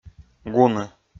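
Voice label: "гуны", Komi-Zyrian, male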